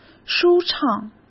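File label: "舒畅", Mandarin Chinese; chinese-flashcards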